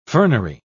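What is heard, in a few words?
"fernery", English, British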